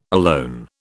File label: "alone", British